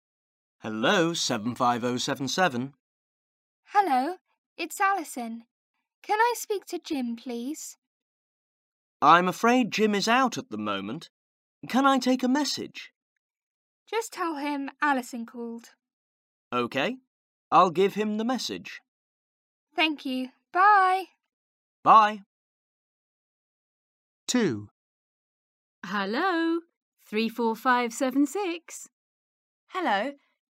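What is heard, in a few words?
Conversation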